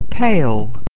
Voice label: "pale", English